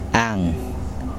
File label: "nest", Kosraean